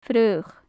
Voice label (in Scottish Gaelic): "fraoch", gaelic; Faclan Nàdair